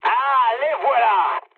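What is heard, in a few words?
enemy detected, mood high, French; War Thunder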